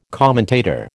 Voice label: "commentator", American